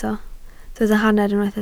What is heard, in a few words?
"hanner", Welsh